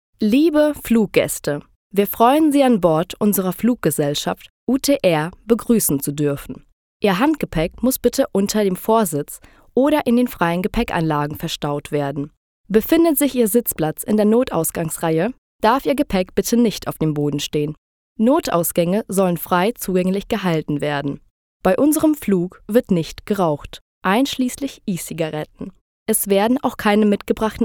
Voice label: Female German